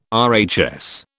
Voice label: "RHS", British